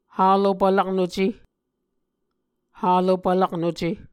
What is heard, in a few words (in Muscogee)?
"halopvlaknuce", Muscogee